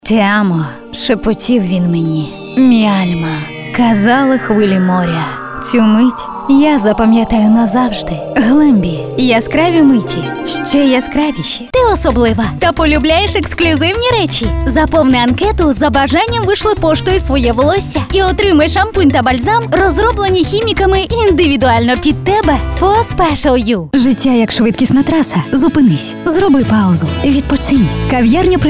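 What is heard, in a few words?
Ukrainian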